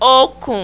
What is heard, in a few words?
"okun", Yoruba, nasal vowels; irun-okun-oyun